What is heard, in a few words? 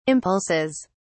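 "impulses", British English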